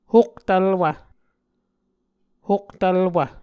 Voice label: "hoktvlwv", Muscogee